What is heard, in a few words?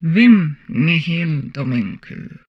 humanoid female; Stellaris; humanoids